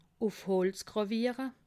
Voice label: "holz", alsace

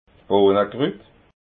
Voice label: "bohnakrut", alsace

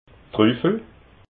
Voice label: "truffel", alsace

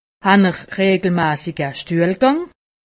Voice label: "stuehlgang", alsace; medecine

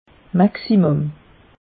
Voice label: "maximum", alsace; meteo

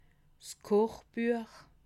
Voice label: "kochbuech", alsace

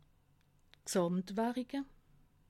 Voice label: "gsamtwarike", alsace

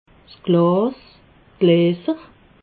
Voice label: "glas", alsace

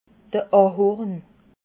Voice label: "ahorn", alsace

